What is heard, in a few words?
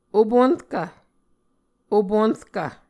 "opuNrkv", muscogee